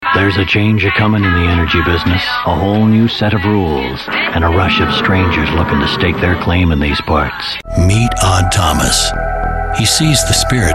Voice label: Commercial